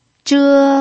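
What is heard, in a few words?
"zhe" Mandarin, first tone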